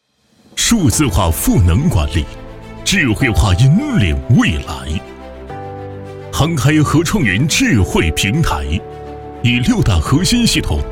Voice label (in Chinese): 男国语, 专题; 数字化赋管理